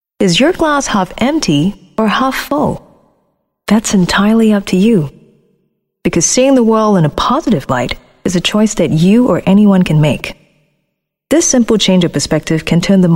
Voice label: Asian English F; HPB

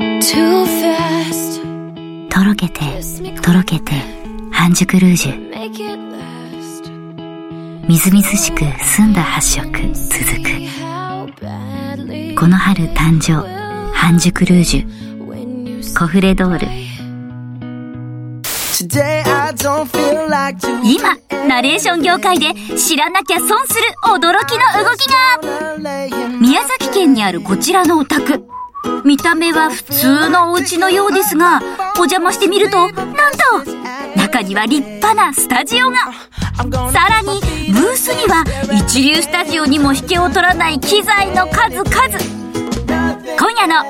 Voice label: Japanese Voice Reel

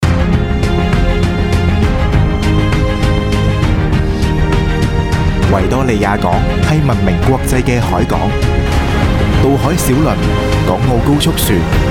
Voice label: Cantonese Corporate Serious

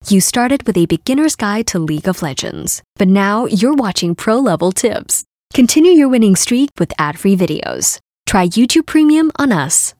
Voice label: Asian English Gaming

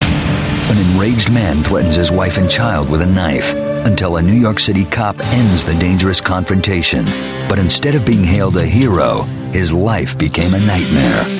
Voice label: US English Promos

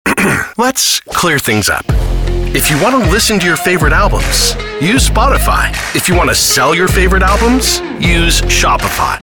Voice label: US English; Shopify

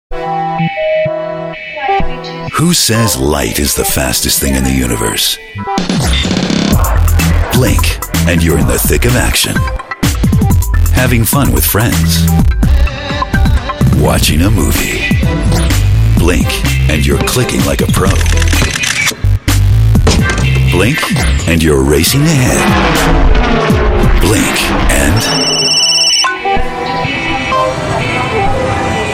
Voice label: US English Phone; XOLO X900

